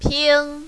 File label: "ping1", mandarin, female